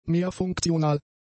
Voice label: "mehrfunktional", German; adjectives

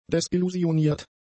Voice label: "desillusioniert", german; adjectives

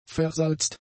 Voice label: "versalzt", german; adjectives

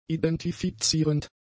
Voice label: "identifizierend", German; adjectives

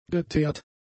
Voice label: "geteert", german; adjectives

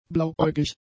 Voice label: "blauäugig", German; adjectives

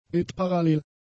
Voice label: "bitparallel", German; adjectives

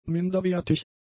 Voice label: "minderwertig", German; adjectives

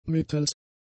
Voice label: "mittels", German; prepositions

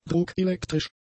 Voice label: "druckelektrisch", German; adjectives

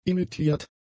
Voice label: "emittiert", german; adjectives

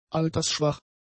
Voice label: "altersschwach", German; adjectives

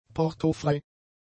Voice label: "portofrei", German; adjectives

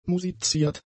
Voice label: "musiziert", German; adjectives

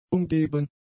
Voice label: "umgeben", German; adjectives

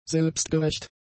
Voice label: "selbstgerecht", German; adjectives

